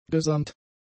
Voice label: "gesamt", German; adjectives